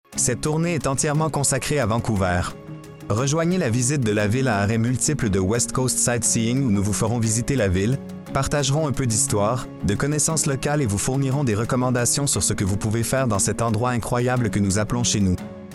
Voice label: French